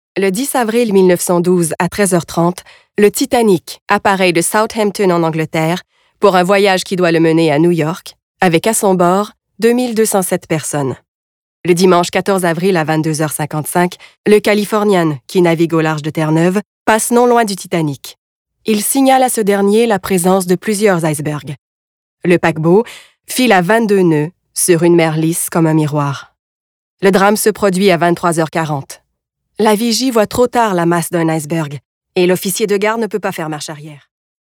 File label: narration; titanic